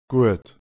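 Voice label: "gwhedh", Proto-Indo-European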